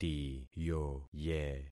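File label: "tiyoye", concatenated syllables; Affixing experiment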